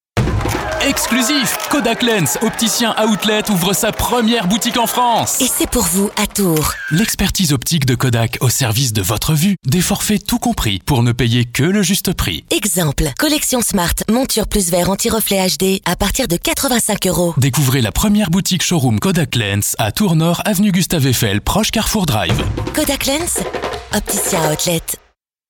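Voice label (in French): spot, spots radio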